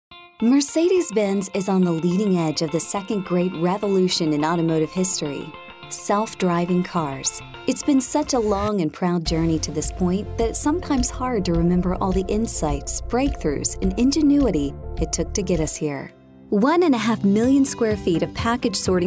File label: Narration